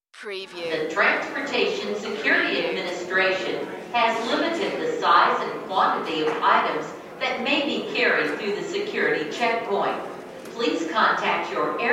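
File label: Airport Security Announce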